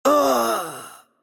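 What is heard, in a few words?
Hungarian death voice; bloom minigame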